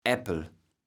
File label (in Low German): "Äppel", kaevels platt